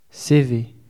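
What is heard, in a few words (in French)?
"cv", French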